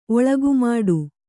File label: "ಒಳಗುಮಾಡು", Kannada; oḷamāḍu